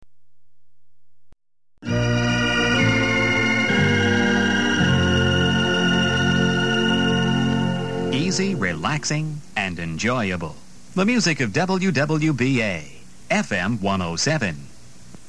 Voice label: commercial break & weather; WWBA